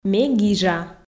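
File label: Komi sentences, female; ме гижа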